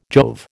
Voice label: "Jove", American

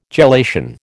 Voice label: "gelation", American

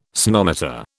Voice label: "sonometer", British

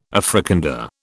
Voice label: "Africander", British

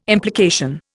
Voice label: "implication", Indian

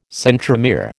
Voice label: "centromere", American

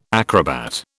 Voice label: "acrobat", British

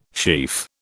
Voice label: "sheaf", British

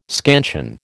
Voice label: "scansion", American

